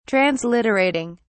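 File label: "transliterating", British English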